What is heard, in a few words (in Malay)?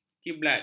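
"kiblat", Malay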